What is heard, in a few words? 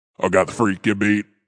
Vocal Deep, Funk vocal loop sample.